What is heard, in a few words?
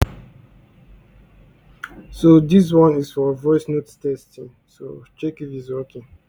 voice message